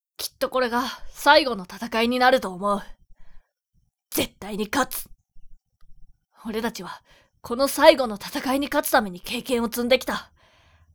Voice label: voice boy